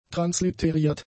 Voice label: "transliteriert", German; adjectives

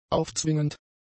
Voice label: "aufzwingend", German; adjectives

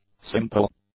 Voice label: "simple", English; adjectives

